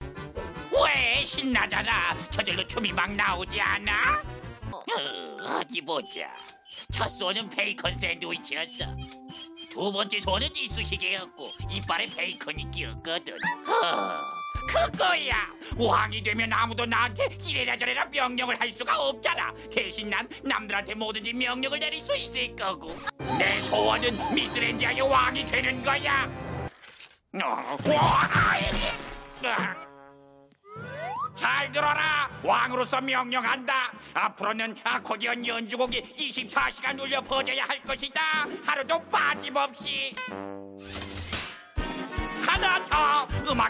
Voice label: 해맑고 장난끼 많은 중년 고블린 코믹 노인; 래인보우키티

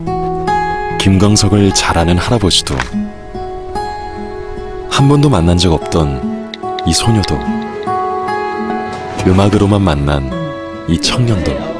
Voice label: CF 부드러운 감성적인; SK텔레콤

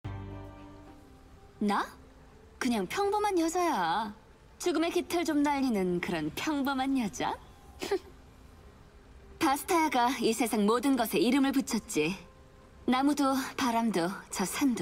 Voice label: 강하고 매혹적인 30대 섹시한; LOL리그오브레전드 자야

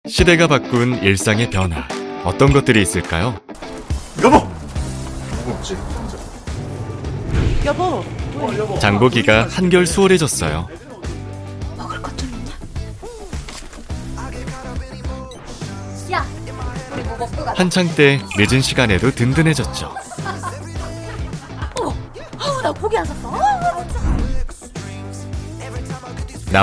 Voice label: 밝은 광고

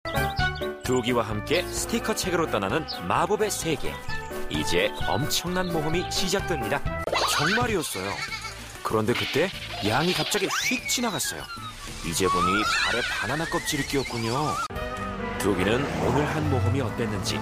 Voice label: 나레이션 20대 일반인; 두기와스티커여행

